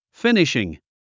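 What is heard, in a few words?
"finishing", English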